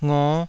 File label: "ngo5", taiwanese syllables